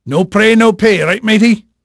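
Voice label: English male, voice; Pirates Of The Caribbean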